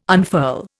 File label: "unfurl", Indian